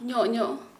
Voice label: "right", Kharia

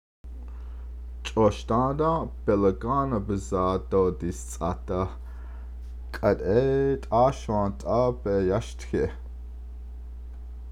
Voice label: Before I didn't understand English Now I, Navajo; Introduction to Navajo Adverbs

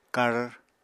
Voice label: "kar", Apatani

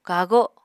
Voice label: "kago", Apatani

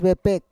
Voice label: "vepek", Roon

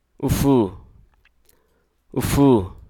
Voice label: "ear", hruso

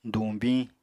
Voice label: "duñbiñ", Apatani

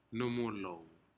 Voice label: fish numulou, Aneityum

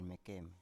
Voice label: "mekem", Biak

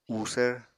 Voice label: "uusvr", Apatani

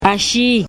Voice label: "ashii", father, Wayuunaiki

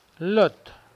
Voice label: "load", Mokilese

